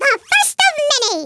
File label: "First blood", American; Speech; Worms Armageddon